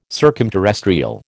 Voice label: "circumterrestrial", American

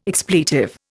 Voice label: "expletive", Indian

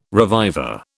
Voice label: "reviver", British

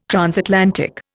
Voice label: "transatlantic", Indian

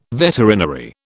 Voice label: "veterinary", British

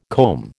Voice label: "comb", American

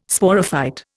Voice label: "Sporophyte", Indian

